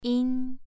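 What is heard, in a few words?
"yin2", Chinese pronunciation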